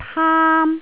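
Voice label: "taam1", Cantonese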